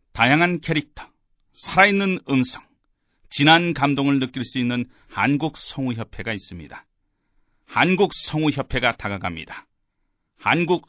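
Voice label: Korean, male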